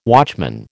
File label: "watchman", American